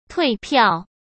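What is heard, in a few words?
"退票", Mandarin Chinese; chinese-flashcards; hsk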